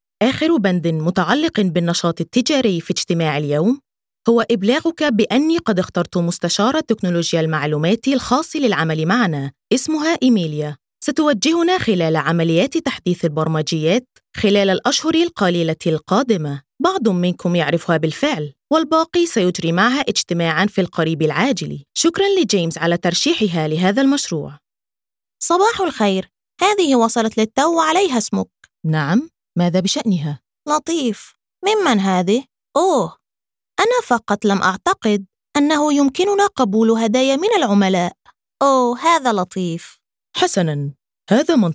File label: Characters Official Arabic Language